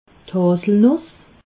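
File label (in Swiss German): "haselnuss", Alsatian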